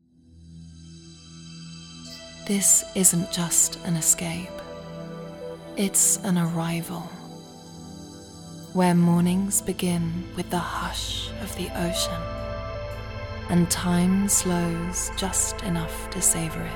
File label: female voices